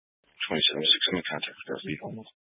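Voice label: Sheriff Dispatch, Scanner audio recording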